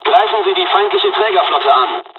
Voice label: bombing, dialogs german; midway us; War Thunder